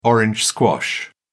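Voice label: "orange squash", English, British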